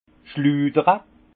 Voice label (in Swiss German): "schludere", alsace; vin